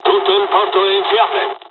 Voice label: japanese first wave, dialogs italian; War Thunder